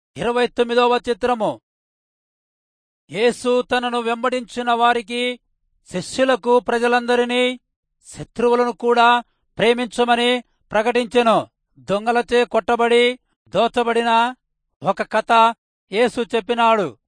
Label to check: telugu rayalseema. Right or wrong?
Right